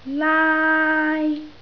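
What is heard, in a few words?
"laai1", Cantonese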